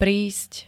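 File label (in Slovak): "prísť", Slovak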